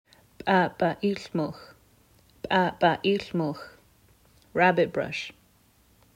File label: Paʕ̓paʕ̓lmlx, Nsyilxen Plant Names; Rabbitbrush